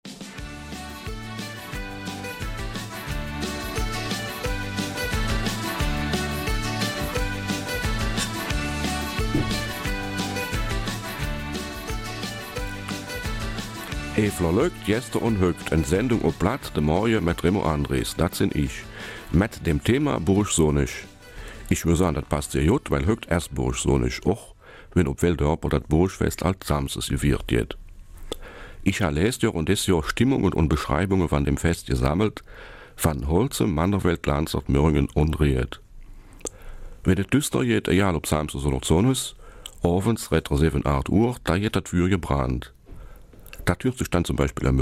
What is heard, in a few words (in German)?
Eifeler Mundart; Die Tradition des Burgbrennens